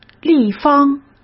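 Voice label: "立方", Mandarin Chinese; hsk; chinese-flashcards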